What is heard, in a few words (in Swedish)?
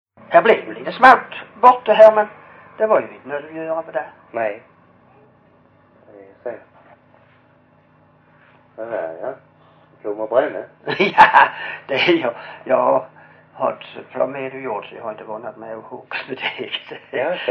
Hörja, dialekter; Folkminnen; Svenska sagor